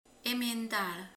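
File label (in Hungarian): "mandula", Hungarian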